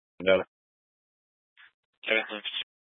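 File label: sheriff dispatch radio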